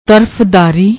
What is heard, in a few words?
"tarafdaari", Punjabi; ਤਰਫਦਾਰੀ